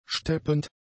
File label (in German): "steppend", German; adjectives